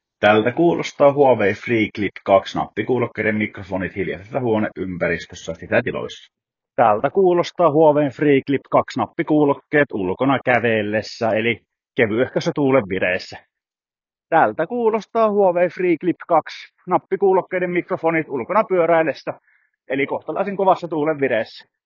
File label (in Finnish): mikrofonit, nappikuulokkeet; Huawei FreeClip 2